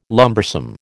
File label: "lumbersome", American